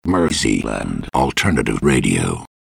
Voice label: DRY VO; MERSEYLAND ALTERNATIVE RADIO